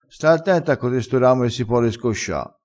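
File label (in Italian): "scosciassi", vocabolario dialettale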